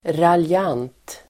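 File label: "raljant", Swedish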